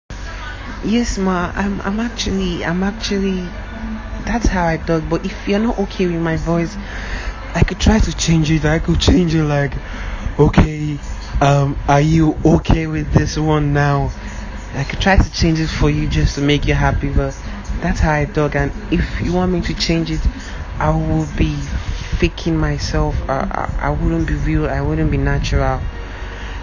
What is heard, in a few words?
audio Message